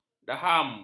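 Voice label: "daham", Malay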